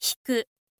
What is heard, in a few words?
info lady hiku